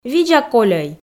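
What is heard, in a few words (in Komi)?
"видза кольӧй", Komi, female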